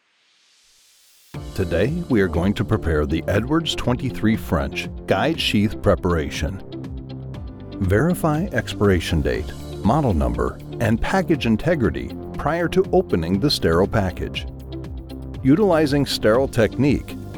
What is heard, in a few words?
Medical Explainer